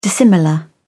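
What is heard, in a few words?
"dissimilar", British English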